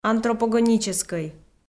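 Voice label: "антропогоническӧй" Komi, female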